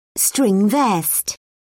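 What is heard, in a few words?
"string vest", British English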